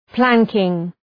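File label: "planking", English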